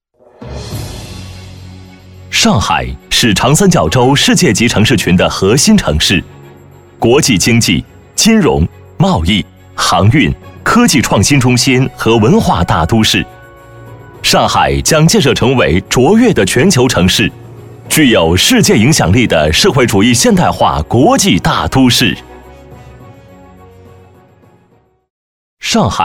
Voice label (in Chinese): 男 宣传片 上海